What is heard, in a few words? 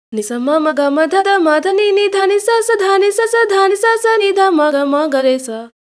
90, timestretch, voice